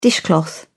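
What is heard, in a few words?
"dishcloth", British English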